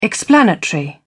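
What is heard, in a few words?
"explanatory", English, British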